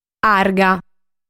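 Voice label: "Arga", Sardinian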